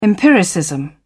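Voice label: "empiricism", British English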